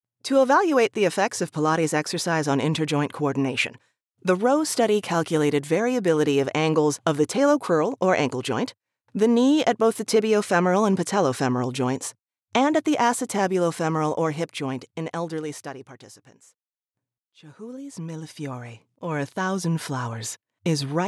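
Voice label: English American, female, voice over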